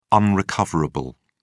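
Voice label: "unrecoverable", British English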